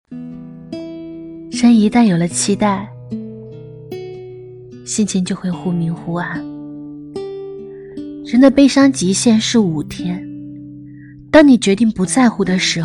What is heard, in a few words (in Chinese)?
独白